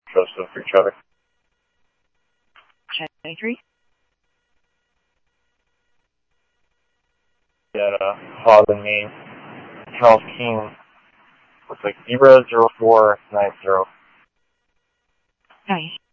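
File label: police radio scanner traffic, Mesa Simulcast; MESA PD Superstn; MesaPoliceDep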